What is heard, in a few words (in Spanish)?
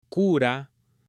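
"cura", Spanish, female; AppLenguaje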